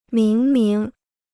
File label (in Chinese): "明明", Chinese